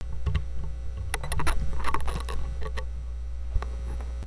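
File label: "lead", spoken word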